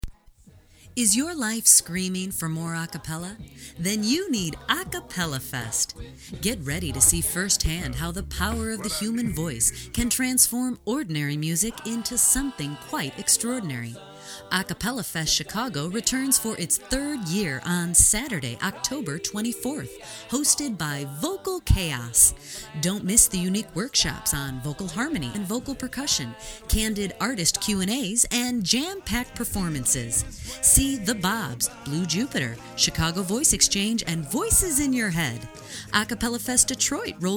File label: Radio Spot